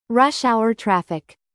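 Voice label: "rush-hour traffic", American English; Time, history; Thời gian, lịch sử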